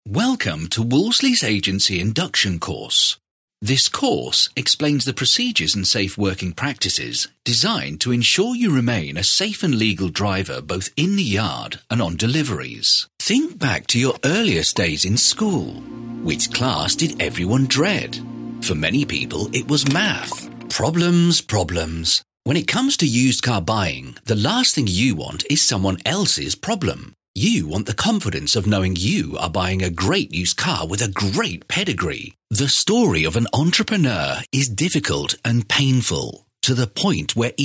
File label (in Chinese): 宣传片